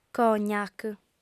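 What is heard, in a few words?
"cognac", female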